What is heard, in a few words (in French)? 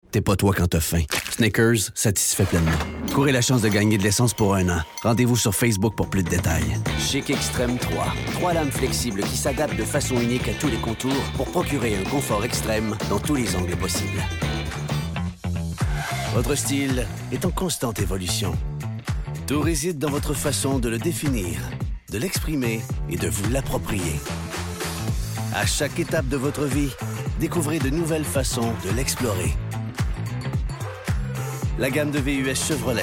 Demo voix